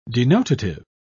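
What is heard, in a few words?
"denotative", British English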